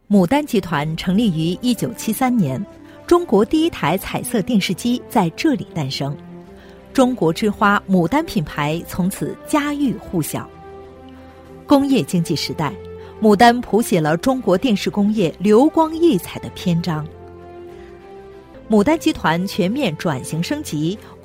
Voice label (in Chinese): 女 牡丹集团（自然）